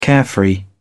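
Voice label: "carefree", British English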